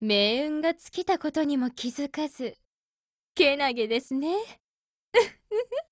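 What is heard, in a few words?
ATTACK, Japanese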